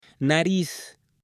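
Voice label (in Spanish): "nariz", male; CUERPO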